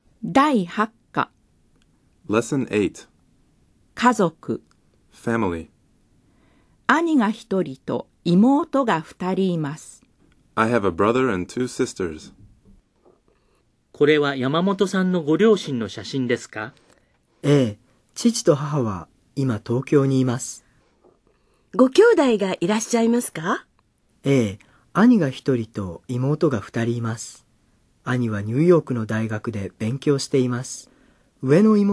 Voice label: Dialog